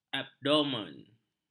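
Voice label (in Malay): "abdomen", Malay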